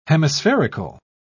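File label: "hemispherical", British English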